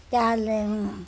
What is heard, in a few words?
snake, calemo